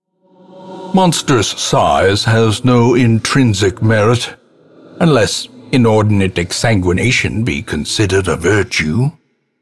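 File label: narrator good kill big; ancestor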